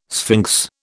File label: "sphinx", British